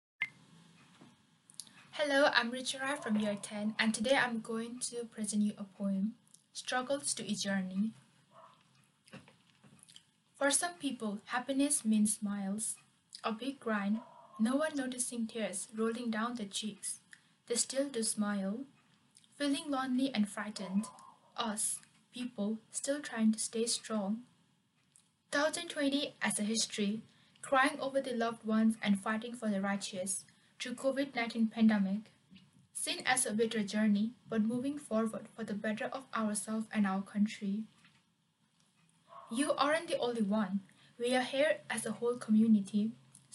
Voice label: Poetry Reading; Chaplaincy